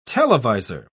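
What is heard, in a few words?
"televisor", British English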